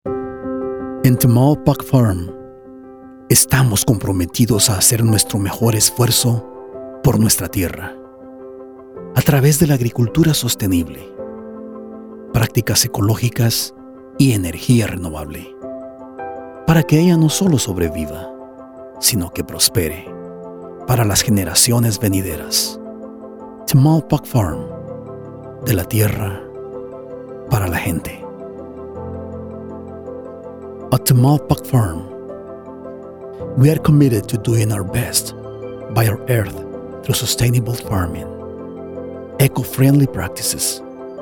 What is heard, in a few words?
Spanish and English with Latin American accent